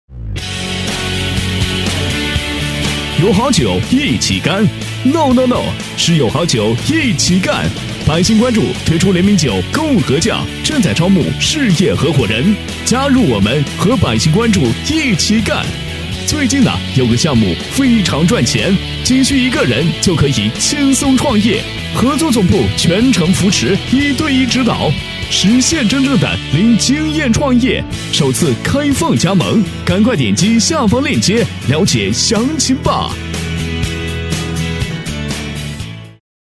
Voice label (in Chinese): 男, 招商广告; 直播带货 共合酱; 男A1-成熟浑厚; 轻松活泼